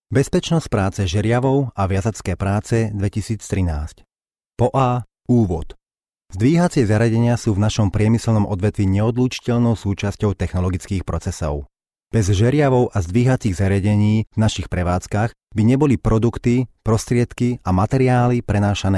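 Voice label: Slovak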